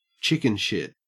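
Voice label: "chickenshit", Australian English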